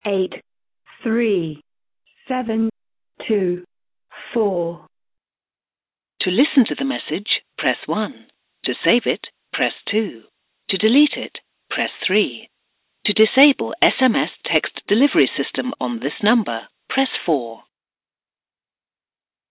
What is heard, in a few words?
call recording